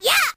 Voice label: "yeah" cartoon, voice